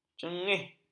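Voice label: "cengis", Malay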